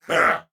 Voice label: Attack, Corpus Venus Male; Warframe; CorpusVenusMaleA